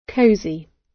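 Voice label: "cosy", British English; Design and innovation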